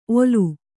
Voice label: "ಓಲು", Kannada

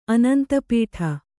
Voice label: "ಆನಂದಪಡು", Kannada

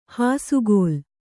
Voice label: "ಹಸೆಗಲ್ಲು", Kannada

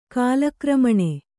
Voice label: "ಕಾಲಕ್ರಮಣೆ", Kannada

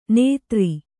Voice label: "ನೇತ್ರಿ", Kannada

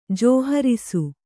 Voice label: "ಜೋಹರಿಸು", kannada

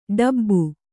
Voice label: "ಡೆಬ್ಬೆ", Kannada

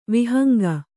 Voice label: "ವಿಹಂಗ", Kannada

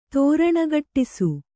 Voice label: "ತೋರಣಗಟ್ಟಿಸು", Kannada

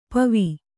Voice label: "ಪೂವು", Kannada

